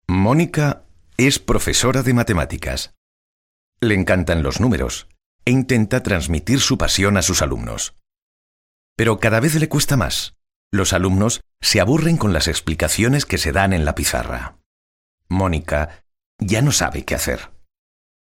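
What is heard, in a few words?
Spanish Spain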